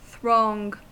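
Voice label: "throng", US English; pronunciations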